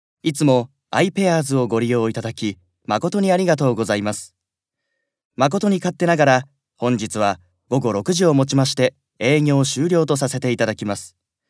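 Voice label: japanese male narration; voice demos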